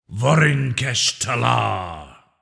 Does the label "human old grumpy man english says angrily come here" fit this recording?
No